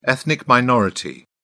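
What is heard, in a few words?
"ethnic minority", British English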